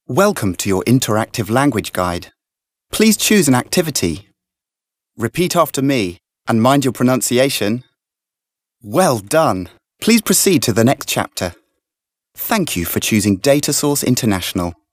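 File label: British English male corporate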